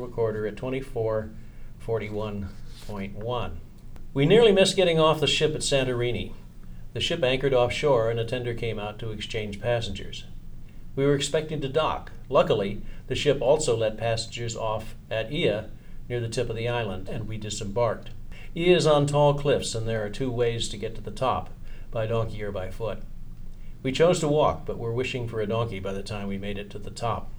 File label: Radio Shack Boundary left AT35s right male, microphones